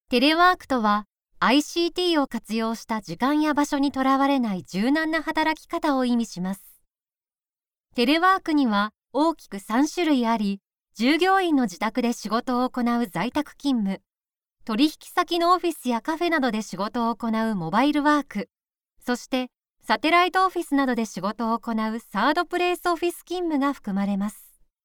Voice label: female